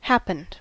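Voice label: "happened", US English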